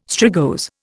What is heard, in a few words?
"strigose", Indian